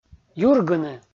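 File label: "юргыны", Komi, male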